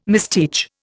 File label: "misteach", Indian